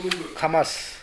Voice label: "kamasu", minamiyamagata